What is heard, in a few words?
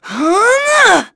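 Vox Casting, English; Aselica; kingsraid; voices heroes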